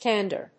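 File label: "Tandoor", English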